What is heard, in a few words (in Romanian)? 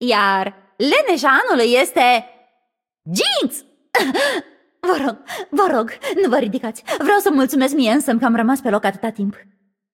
Room2 VO Idle State Timed, vo, ro-ro